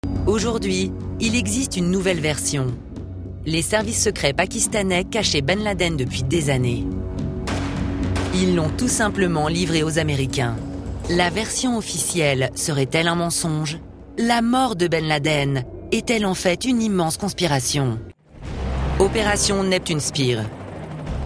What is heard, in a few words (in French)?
Extrait Narration; Ben Laden